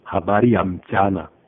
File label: good afternoon swahili